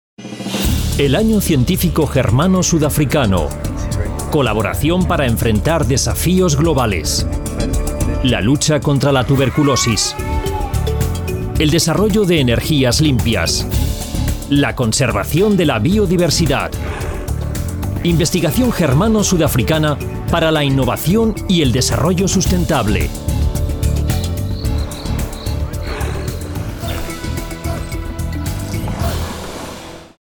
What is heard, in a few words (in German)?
ESP Werbung Dynamisch